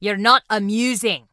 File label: Dwarf Female Guard NPC Pissed